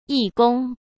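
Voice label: "义工", Mandarin Chinese; chinese flashcards; hsk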